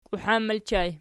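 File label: "kiuhan" ergative plural, Archi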